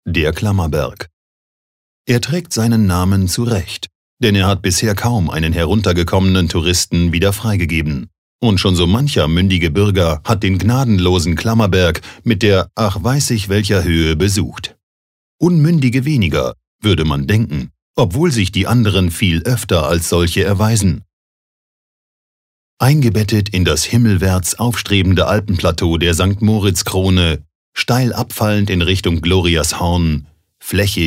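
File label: commentary, neutral; Kommentar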